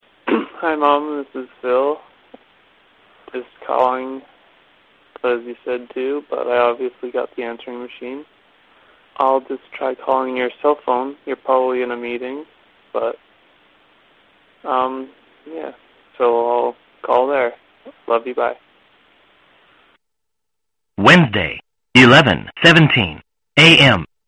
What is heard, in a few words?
leaves message, answering machine messages